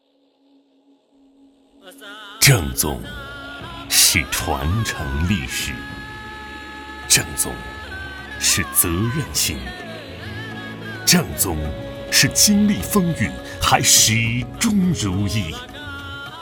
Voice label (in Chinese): 广告 男 悠远; 陈醋